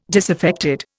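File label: "disaffected", Indian English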